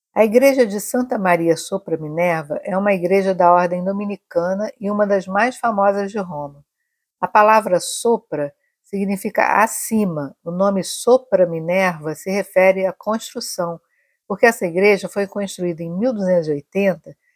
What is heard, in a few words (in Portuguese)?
Audioguia; SM Sopra Minerva